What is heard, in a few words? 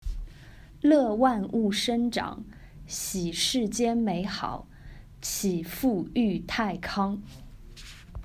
chinese